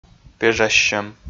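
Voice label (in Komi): "пежассьӧм", Komi, male; audio dict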